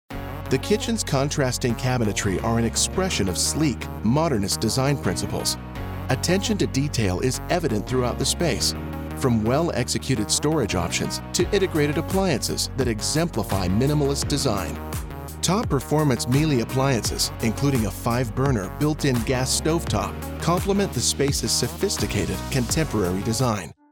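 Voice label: Real Estate, Male Voice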